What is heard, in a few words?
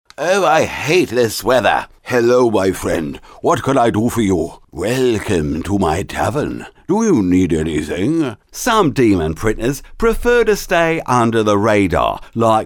CHARACTER VOICEOVERS